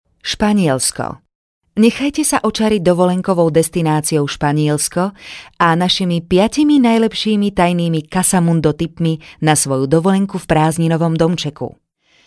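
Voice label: Slovak, female, explainer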